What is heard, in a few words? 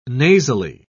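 "nasally", British English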